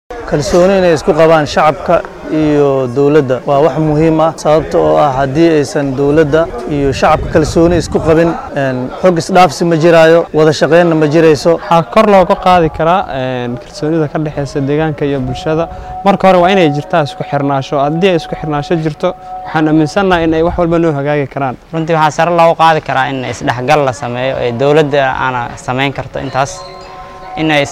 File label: VOX POP